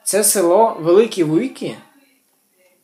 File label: dialogue, Ukrainian